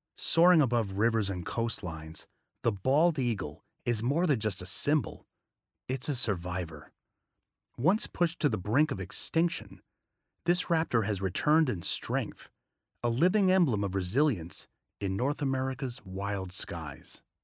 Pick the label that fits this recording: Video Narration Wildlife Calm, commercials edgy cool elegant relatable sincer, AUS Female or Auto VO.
Video Narration Wildlife Calm